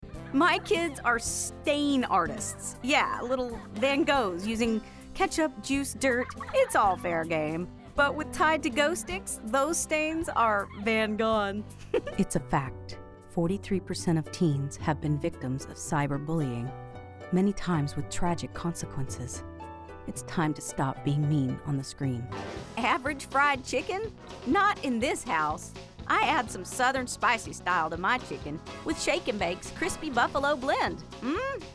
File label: Commercial